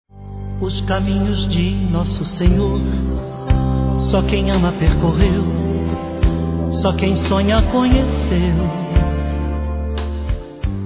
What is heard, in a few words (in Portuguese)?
aniversario romantico religioso, fem; Aniversários